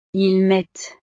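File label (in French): "ils mettent", French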